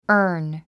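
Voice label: "urn", English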